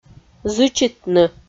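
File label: "зычитны", Komi, female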